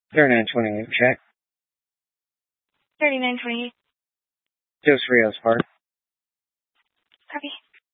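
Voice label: Incident Tactical 3, Scanner audio recording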